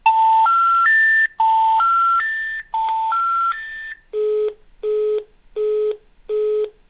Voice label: Russia Moscow Number not exist; Zagranica